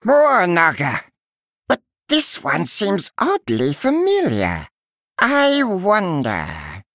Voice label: Male Murloc